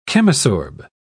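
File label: "chemisorb", British English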